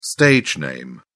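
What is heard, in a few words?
"stage name", British English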